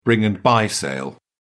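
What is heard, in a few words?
"bring and buy sale", British English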